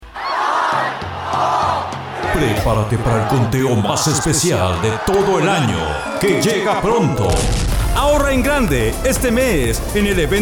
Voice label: Spanish